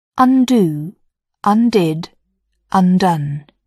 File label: "undo", English